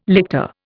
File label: "lictor", Indian